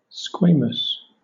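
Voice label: "squamous", British English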